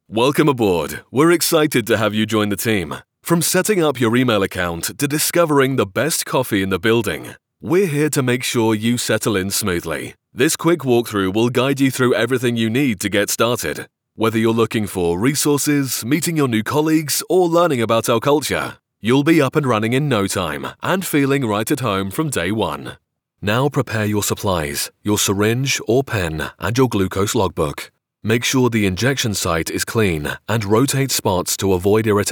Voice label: English M eLearning; elearning voice over